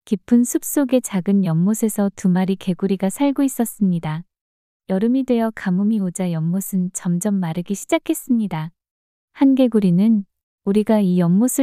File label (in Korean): 이야기 여자; 두 마리 개구리